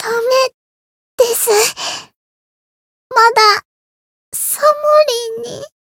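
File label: Voice retire Japanese; loveli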